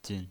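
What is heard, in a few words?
"jin", neutral tone, pinyin voice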